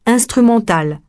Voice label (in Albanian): "instrumental", frengjisht